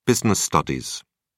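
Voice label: "business studies", British English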